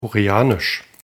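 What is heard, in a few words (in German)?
"koreanisch", German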